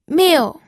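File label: "miu", fourth tone, pinyin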